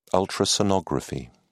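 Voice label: "ultrasonography", British English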